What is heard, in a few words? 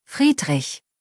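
"Friedrich", German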